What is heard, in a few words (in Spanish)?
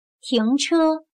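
"停车", chino mandarin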